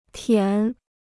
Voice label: "舔", Chinese

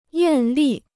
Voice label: "艳丽", Chinese

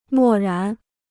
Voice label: "漠然", Chinese

